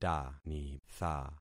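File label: "tanitha", concatenated syllables; Affixing experiment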